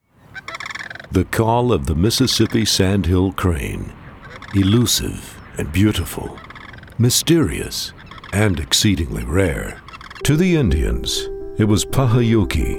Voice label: Nature Environment Narration